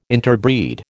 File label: "interbreed", American